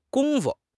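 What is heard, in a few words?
"gong1 fu5", Chinese; HSK4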